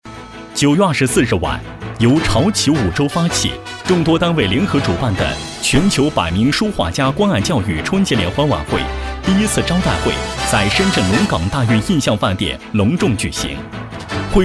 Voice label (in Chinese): 男 新闻 男声新闻播报